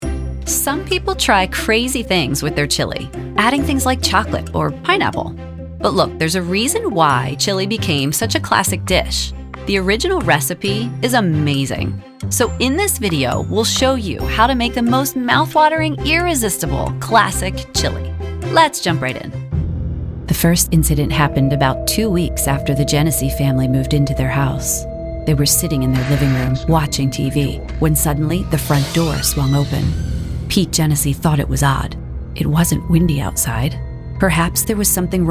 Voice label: Narration